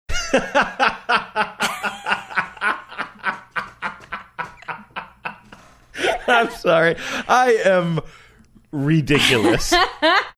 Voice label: laughing saying im sorry i am ridiculous